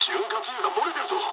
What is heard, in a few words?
damaged oil mood low, speech jp; War Thunder